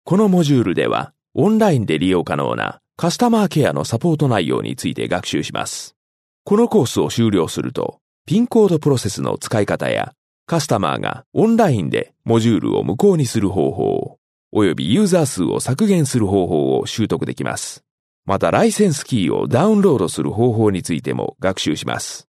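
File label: Japanese M Narration, male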